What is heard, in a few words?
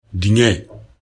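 "dinge", Bambara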